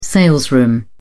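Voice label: "salesroom", British English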